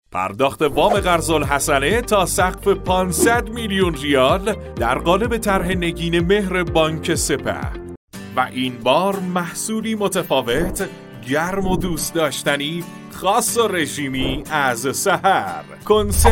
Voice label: Narration of commercial videos; نمونه صدا گویندگی